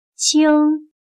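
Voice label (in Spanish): "蜻", chino mandarin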